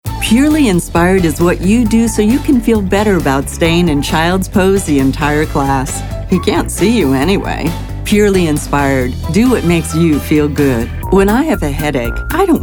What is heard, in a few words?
Commercial